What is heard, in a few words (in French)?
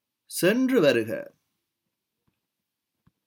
tamoul au revoir; traduction tamoul